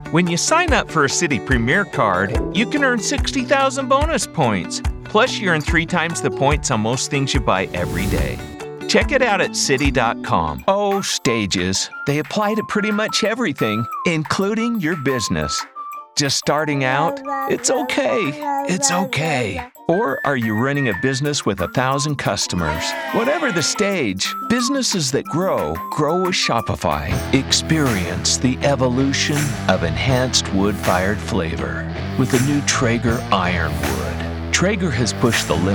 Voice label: Commercial